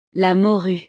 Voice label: "la morue", frances

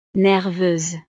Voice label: "nerveuse", frances; estados animo

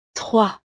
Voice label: "trois", frances

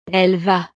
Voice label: "elle va", frances

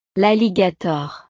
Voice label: "l alligator", frances

